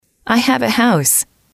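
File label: "I have a house", English, female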